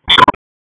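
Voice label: Police Special Operations Tactical; Raleigh; Spec Ops Tac 4; p25; wake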